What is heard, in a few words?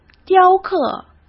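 "雕刻", Mandarin Chinese; chinese-flashcards; hsk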